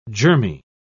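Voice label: "germy", British English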